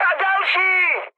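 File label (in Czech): Czech player killed enemy, mood high; War Thunder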